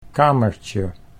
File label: "kamertje", Dutch